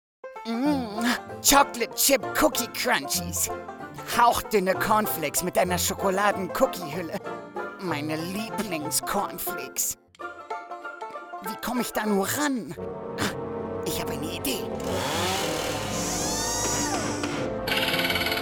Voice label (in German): cartoon Werbung; cookie